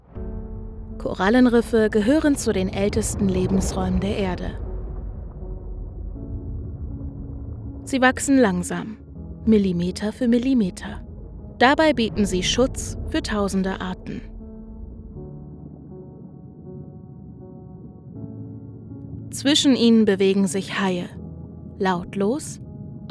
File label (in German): Naturdokumentation